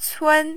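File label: "cun", first tone, Mandarin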